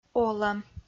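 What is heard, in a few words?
"олӧм", Komi, female; kpv; audio dict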